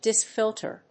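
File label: "disc filter", English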